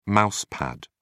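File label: "mouse pad", British English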